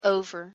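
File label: pronunciation "over", English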